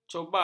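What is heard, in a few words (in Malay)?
"cobak", Malay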